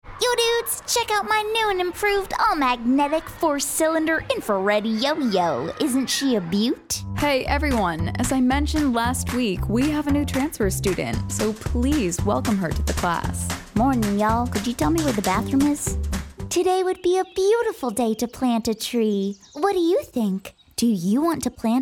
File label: Character Animation Voiceover